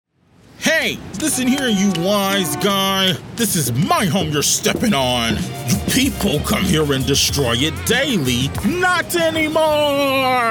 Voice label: animation